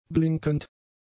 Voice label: "blinkend", German; adjectives

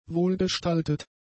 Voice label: "wohlgestaltet", German; adjectives

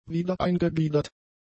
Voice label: "wiedereingegliedert", German; adjectives

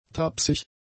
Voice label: "tapsig", German; Adjective; awkward; clumsy; adjectives

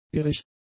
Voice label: "irrig", german; adjectives

